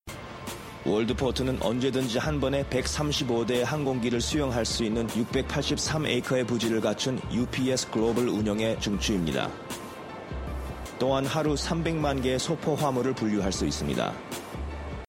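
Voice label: Korean, male, narration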